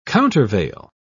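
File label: "countervail", British English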